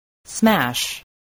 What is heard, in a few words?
"smash", voice spelling